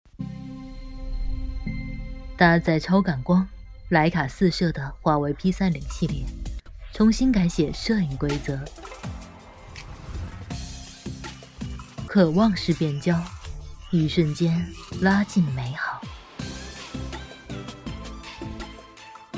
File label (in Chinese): 廣告; 手機